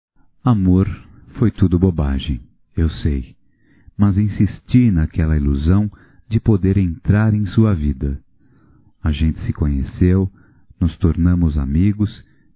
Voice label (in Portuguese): AINDA TE AMO(M); Telemensagens 2017; Declaração de amor